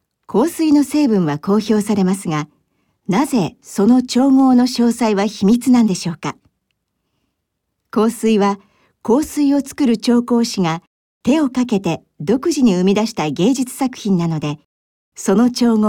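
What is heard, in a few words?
Japanese narration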